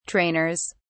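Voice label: "trainers", British English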